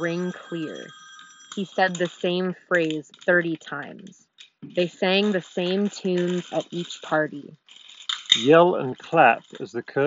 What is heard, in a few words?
Apple AirPods Pro Office microphone